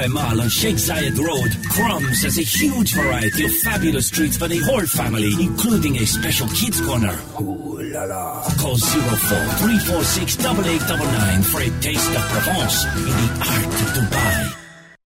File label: french